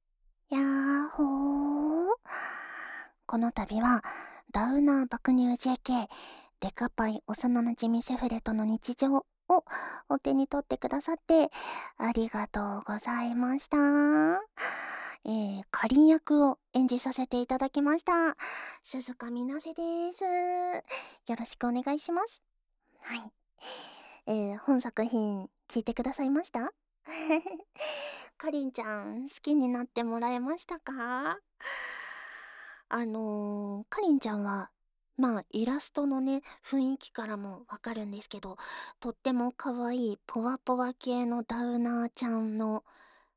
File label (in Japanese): フリートーク, ASMR